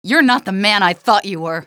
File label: not the man i thought, female; Half-Life 2